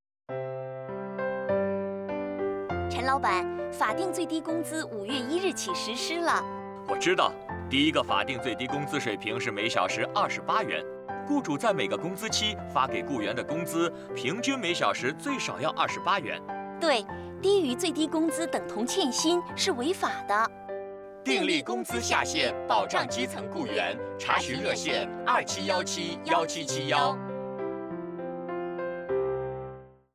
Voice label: Radio Employers putonghua